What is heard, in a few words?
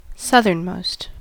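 "southernmost", US English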